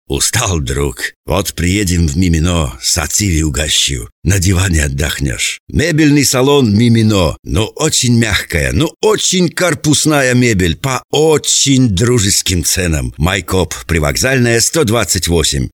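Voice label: Gruzin, Georgian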